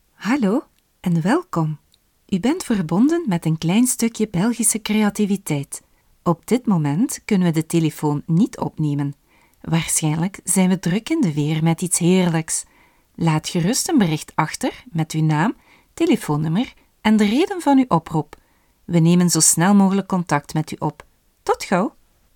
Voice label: Voice NL, voice over

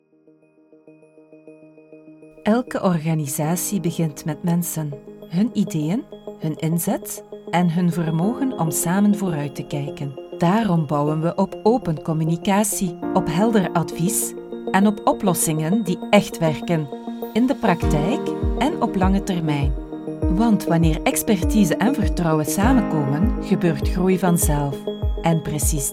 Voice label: Corporate NL Vlaams, voice over; voice-over-voix-off

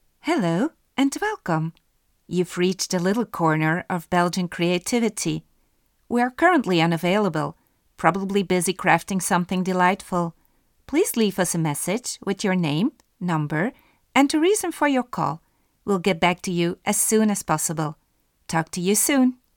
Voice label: Voice EN

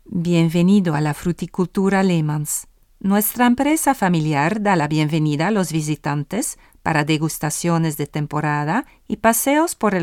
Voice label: Voice, ES; Cerveceria

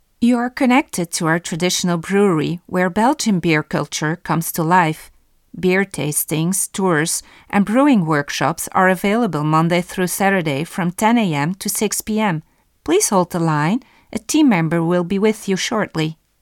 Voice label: Voice Brewery, voice-over

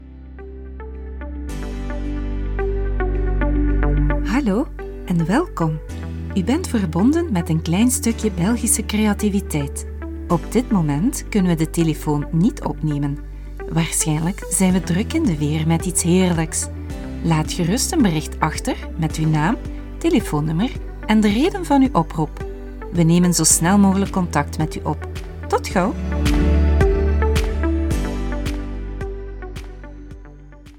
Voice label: Corporate NL, voice over

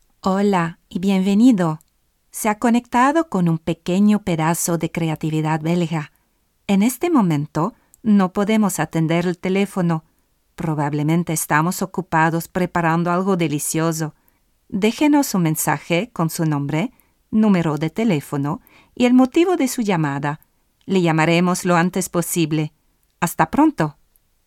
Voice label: Voice ES

